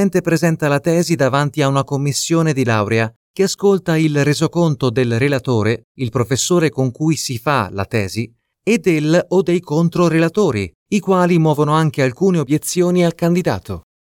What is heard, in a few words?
italian e-learning